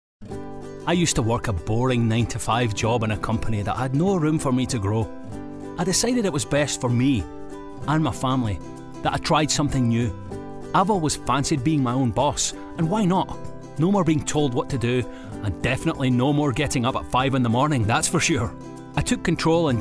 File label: natural conversational